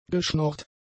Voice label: "geschnorrt", German; adjectives

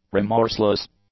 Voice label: "remorseless", English; adjectives

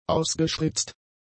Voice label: "ausgespritzt", German; adjectives

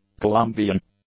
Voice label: "Colombian", English; nouns

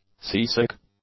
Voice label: "seasick", English; adjectives

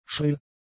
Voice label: "schrill", German; adjectives

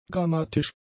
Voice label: "grammatisch", German; adjectives

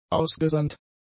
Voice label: "ausgesandt", German; adjectives

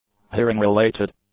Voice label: "hearing-related", English; adjectives

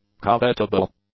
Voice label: "covetable", English; adjectives

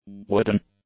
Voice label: "wooden", english; adjectives

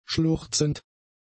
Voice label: "schluchzend", German; adjectives

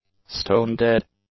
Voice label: "stone-dead", English; adjectives

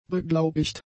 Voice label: "beglaubigt", German; adjectives

